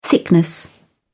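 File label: "thickness", British English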